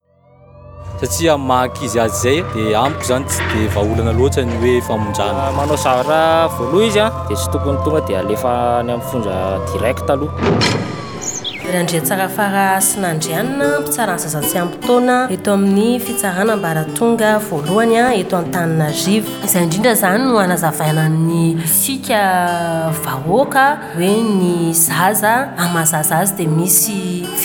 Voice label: SPOT RADIO